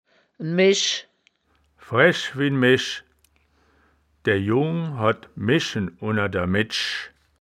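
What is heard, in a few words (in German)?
"mesch", Wittlicher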